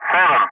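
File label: "7", English; Ka-50; Wingman; Digits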